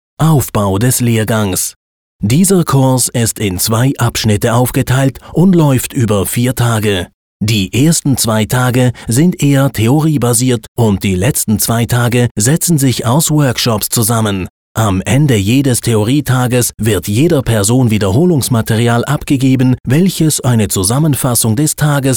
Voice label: german e-learning